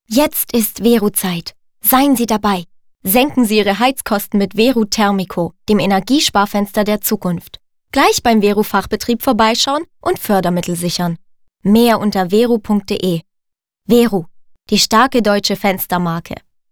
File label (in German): Werbespot